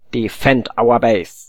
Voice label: "defend", voice

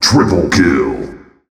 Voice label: kills, announcer, male

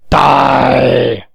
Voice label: taunt, voice

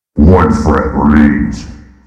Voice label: 1 frag left, announcer robotic

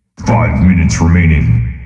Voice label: 5 minutes left, announcer robotic

